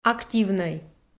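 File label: "активнӧй", Komi-Zyrian, female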